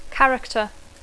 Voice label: "character", English

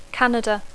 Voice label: "Canada", English

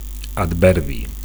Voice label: "adverbi", Catalan

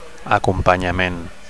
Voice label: "acompanyament", Catalan; (m) escort; (m) accompaniment

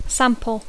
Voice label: "sample", English

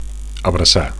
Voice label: "abracar", Catalan; 1. to embrace

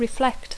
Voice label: "reflect", English

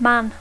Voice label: "man", English

